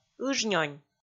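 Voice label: "ыжнёнь", Komi, female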